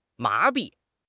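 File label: "麻痹", Mandarin Chinese; HSK6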